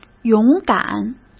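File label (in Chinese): "勇敢", Mandarin Chinese; hsk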